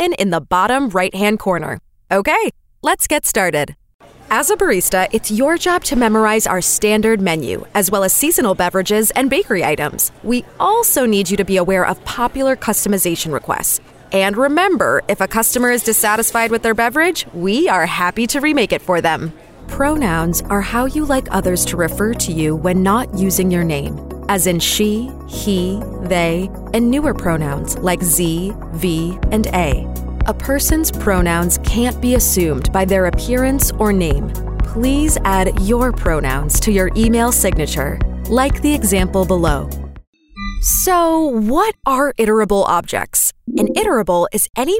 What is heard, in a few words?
E Learning; Voiceover for e-Learning